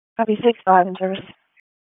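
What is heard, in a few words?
EMS DISP, radio; wake; p25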